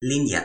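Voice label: "linja", Toki Pona; linku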